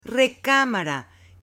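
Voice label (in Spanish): "RECAMARA", Spanish, female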